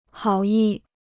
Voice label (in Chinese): "好意", Chinese